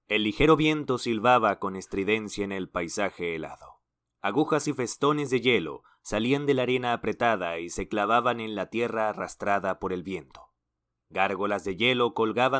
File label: Spanish (LA) Male